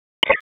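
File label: FIRE Operations, p25; wake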